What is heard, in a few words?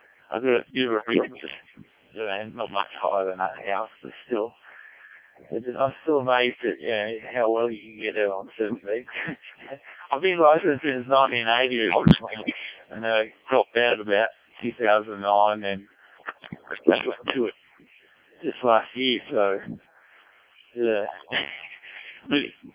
decoded speech, 7177KHz